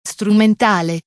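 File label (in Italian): "strumentale", Italian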